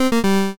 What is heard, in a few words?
pico angry